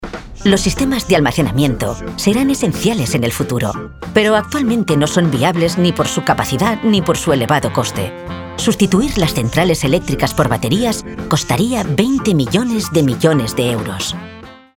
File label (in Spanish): TONO PUBLICITARIO